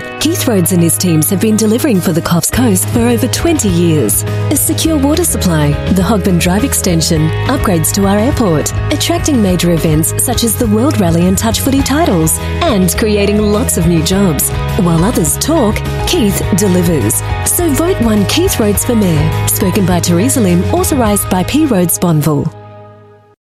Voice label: AU MidAtlantic English Council Elections, Australian Female